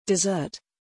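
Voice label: "desert", English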